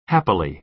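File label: "happily", American English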